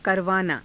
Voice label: "karavaanaa", Hindi